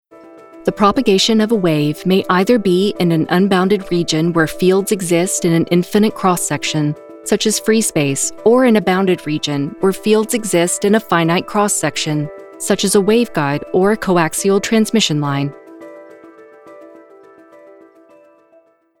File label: E Learning TECHNICAL